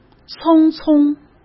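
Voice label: "匆匆", Mandarin; chinese-flashcards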